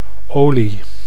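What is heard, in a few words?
"olie", Dutch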